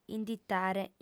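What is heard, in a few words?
"inditare", Sardinian, female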